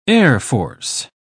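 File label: "airforce", British English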